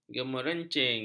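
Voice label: "gemerencing", Malay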